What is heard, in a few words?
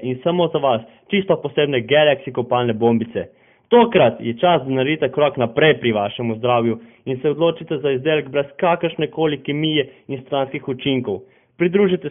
natural splash voice